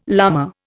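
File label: "llama", Indian English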